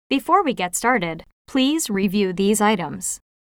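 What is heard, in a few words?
onboarding provision terms US English; Bixby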